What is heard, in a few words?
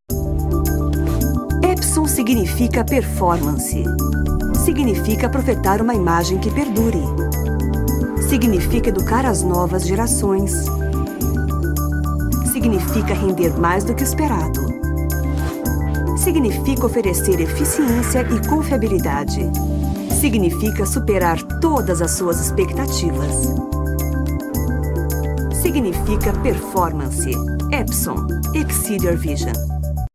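female, Portuguese Brazil, narration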